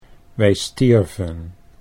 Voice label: "wij stierven", Dutch

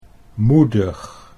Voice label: "moedig", Dutch

